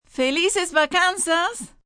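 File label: Holidays Spanish F, female, Spanish; Occasion, event; VoiceBox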